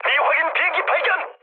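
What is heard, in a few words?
Korean enemy detected mood med, speech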